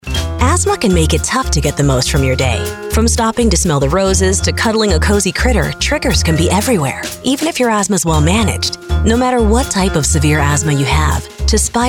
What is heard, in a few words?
Commercial Pharmaceuticals Upbeat Friendly; Tespire